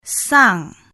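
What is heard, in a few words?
"sang", Malay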